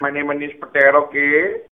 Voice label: loud